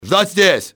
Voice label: hold here, russian soldier; XBOX